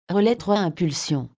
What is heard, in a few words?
relay 2 pulse, fr_FR; SvxLink; SVXCard; Relays